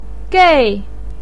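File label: "gei", Mandarin Chinese, fourth tone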